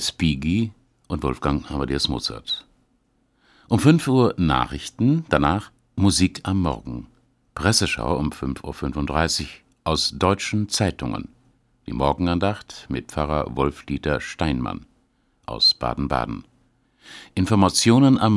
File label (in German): Deutschlandfunk Ansage